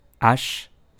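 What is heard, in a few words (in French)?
"H", learn French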